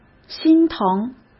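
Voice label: "心疼", Mandarin Chinese; chinese-flashcards; hsk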